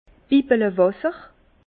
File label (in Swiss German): "bibbelewasser", Bas Rhin; vin